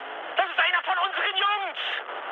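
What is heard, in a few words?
German player friendly fire mood high, speech; War Thunder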